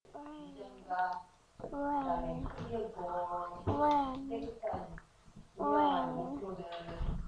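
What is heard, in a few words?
"ring", spoken word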